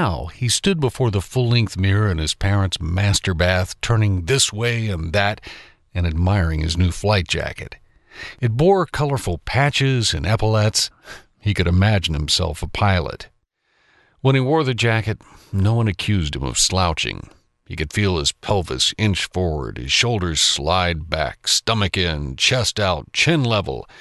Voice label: audiobooks